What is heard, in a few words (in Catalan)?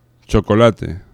"chocolate", africades; laboratori fonetica